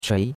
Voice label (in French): "chui2", chinois; Cours de Chinois